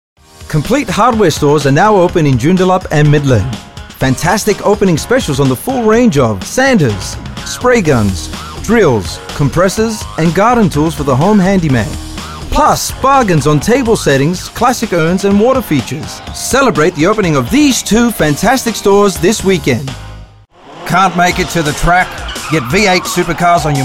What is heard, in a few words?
Voice Reel Retail